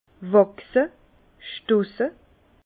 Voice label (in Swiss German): "wachse", Bas Rhin; vin; pousser faire pousser